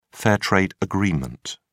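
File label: "fair-trade agreement", British English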